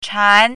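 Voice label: "chan2", Chinese pinyin, second tone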